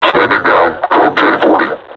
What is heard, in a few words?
taunt, vo combine suppressor; Half Life Alyx